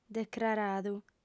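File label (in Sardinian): "decraradu", female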